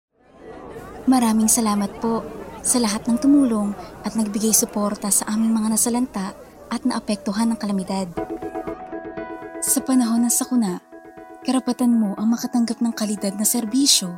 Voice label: Radio Plug